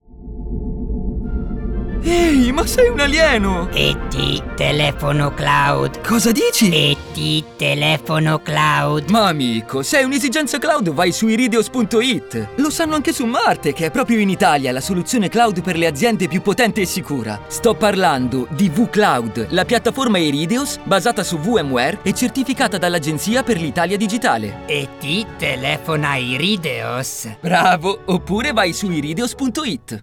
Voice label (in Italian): Spot; Irideos